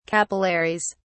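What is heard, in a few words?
"capillaries", British English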